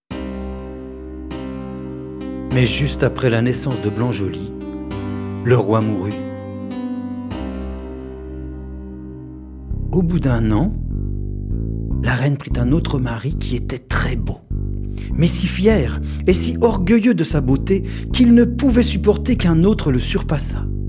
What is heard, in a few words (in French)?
narration